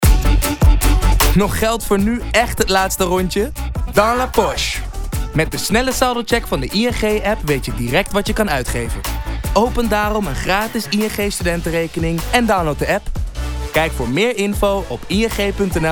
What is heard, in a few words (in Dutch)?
Jeugdig, voice-over; ING; ING Dans la Poche Spotify Club